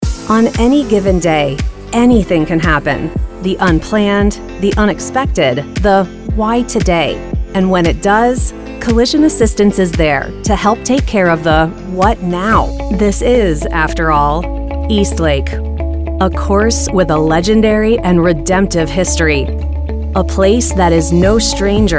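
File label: Narration, voiceover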